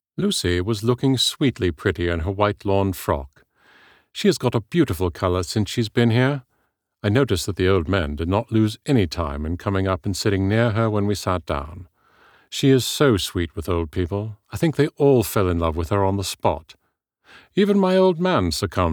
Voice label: Gothic Fiction Accents RP Yorkshire elderly, audiobook